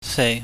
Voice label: "sei", Chinese

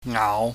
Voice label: "ngaau", pingyam

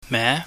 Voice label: "me", pingyam

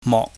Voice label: "mok", Chinese

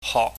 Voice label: "hok", chinese

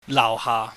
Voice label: "lauhhah", Cantonese

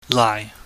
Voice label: "lai", chinese

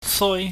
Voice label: "soi", pingyam

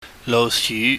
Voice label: "louhsyu", Cantonese

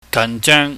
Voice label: "ganjeung", Cantonese

